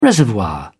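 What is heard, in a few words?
"reservoir", British English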